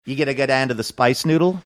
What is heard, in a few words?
you gotta go down to space noodle, australian